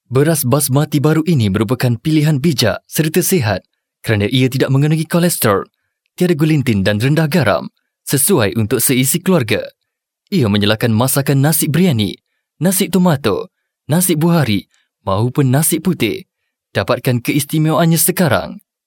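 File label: malay male voice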